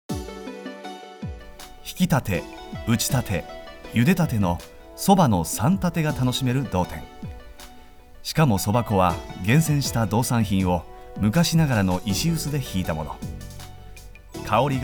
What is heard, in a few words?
voice narration gourmet